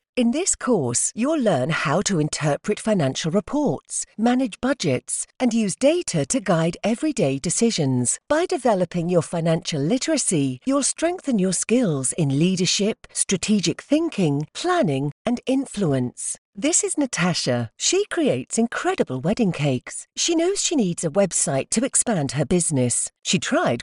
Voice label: english british explainer videos